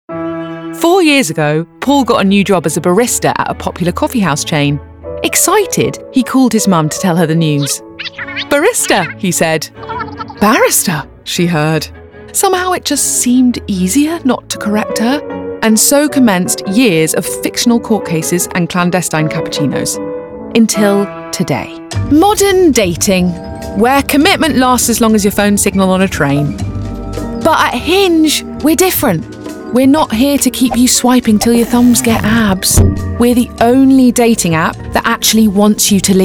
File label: Commercial Mix Reel